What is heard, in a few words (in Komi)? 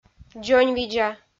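"дзоньвидза", Komi, female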